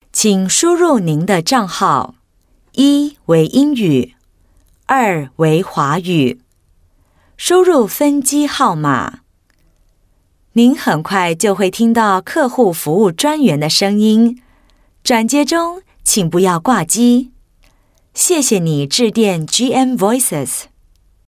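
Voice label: interactive voice response, Mandarin China Female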